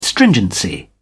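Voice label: "stringency", British English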